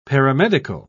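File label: "paramedical", British English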